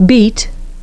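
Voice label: "beat", English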